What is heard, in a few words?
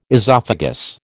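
"esophagus", American English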